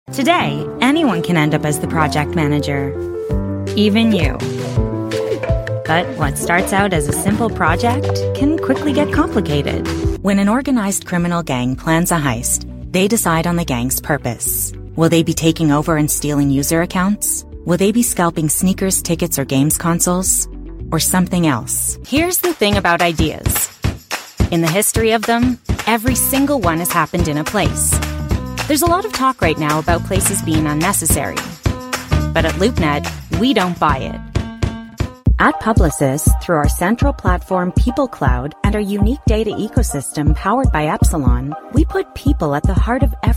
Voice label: American voice corporate